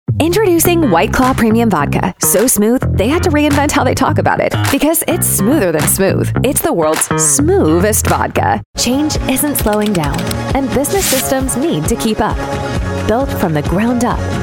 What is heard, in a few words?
ENG